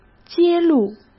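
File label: "揭露", Mandarin Chinese; hsk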